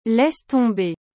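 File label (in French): "Laisse tomber", French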